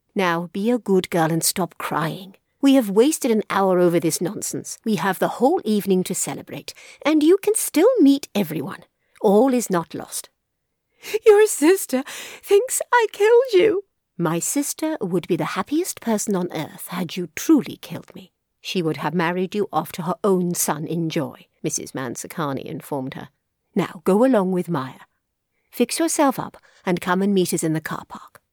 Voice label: short retail